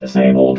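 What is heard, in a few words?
"disabled", robot voice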